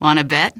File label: answer, female; Garry's Mod; hl2